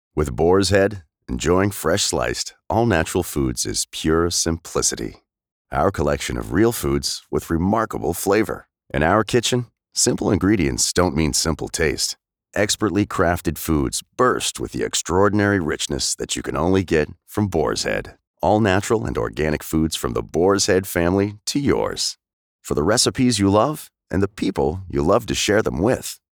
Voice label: conversatinal male voice over; boar's head